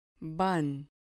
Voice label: "ban", Spanish